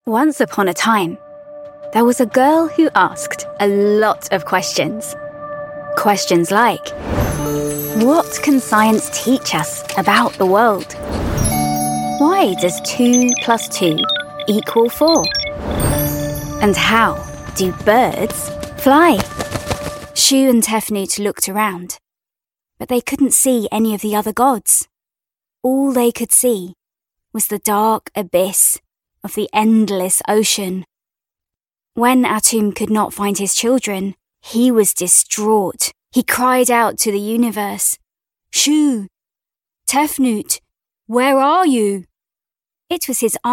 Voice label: narration